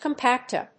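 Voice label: "compacta", English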